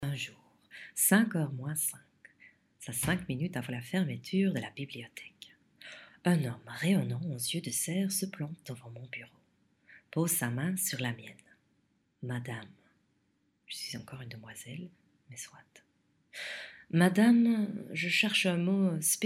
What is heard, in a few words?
French voice